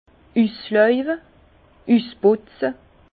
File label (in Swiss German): "uslauwe", Bas Rhin; vin; élaguer les vignes